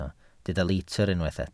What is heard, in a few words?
"litr", Welsh